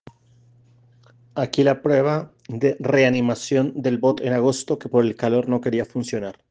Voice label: Voice message; cartografia critica